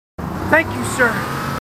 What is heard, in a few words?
marines, marine timid; h2dialogue